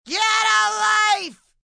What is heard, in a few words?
Get A Life, Attitude, female 'Get a Life', (human voice speaking); Talking Sound Effects; Talking (Sound Effects:Voice); VoiceBox; Twistedtracks